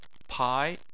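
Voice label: "pai" second tone, Chinese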